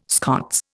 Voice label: "Scots", Indian

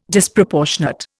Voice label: "Disproportionate", Indian

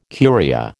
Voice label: "Curia", American

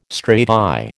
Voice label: "strati", American

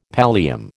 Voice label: "pallium", American

